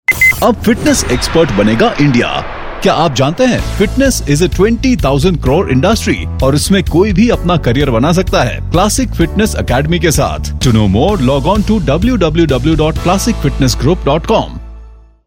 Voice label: Classic Fitness Radio Spot